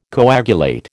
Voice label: "coagulate", American